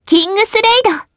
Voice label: Vox, jp; Frey; Kingsraid